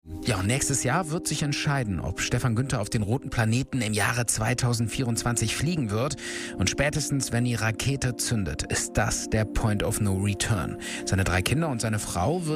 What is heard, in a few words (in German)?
Stimmprobe Radiobeitrag; Stimmproben Sprecherstimme Radiofeature